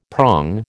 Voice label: "prong", American